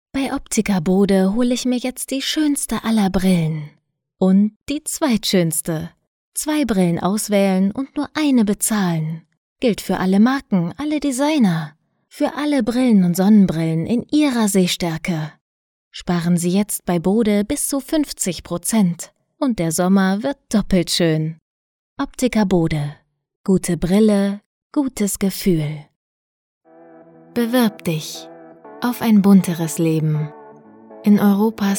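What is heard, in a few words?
German, female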